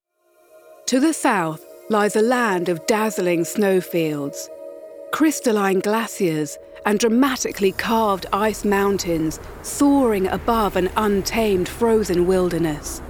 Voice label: documentary narration